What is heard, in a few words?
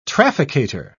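"trafficator", British English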